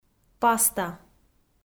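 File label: "паста", Komi, female; audio dict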